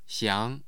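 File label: "xiang2", Mandarin Chinese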